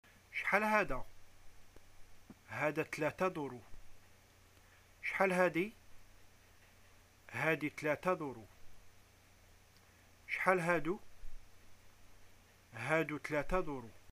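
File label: shopping how much, arabic, Algerian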